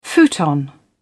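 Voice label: "futon", British English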